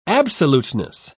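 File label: "absoluteness", British English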